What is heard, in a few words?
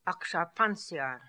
"Aksharpanziar", pronounciations; Fourth Way; Beelzebub